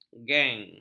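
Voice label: "gang", Malay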